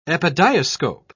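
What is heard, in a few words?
"epidiascope", British English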